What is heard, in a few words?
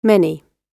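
"many", British English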